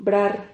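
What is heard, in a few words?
"brar", Spanish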